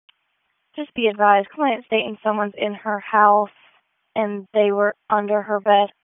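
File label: Raleigh Police Southwest District Dispatch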